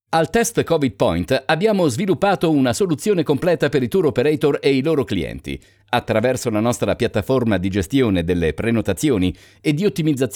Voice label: Italian voice over health